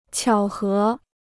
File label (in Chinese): "巧合", Chinese